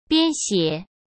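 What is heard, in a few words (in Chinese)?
"编写", Mandarin Chinese; hsk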